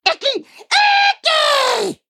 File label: Male Imp Play